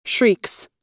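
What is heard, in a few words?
"shrieks", American English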